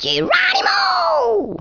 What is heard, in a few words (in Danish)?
"Bungee", Danish